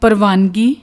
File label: "parvaangi", Punjabi